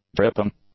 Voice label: "dripping", English; nouns